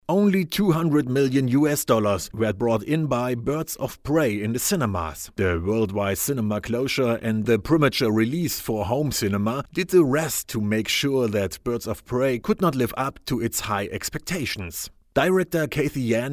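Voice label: German English, male, narration; voice over; accent of germany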